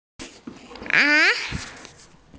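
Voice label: "na2", Mandarin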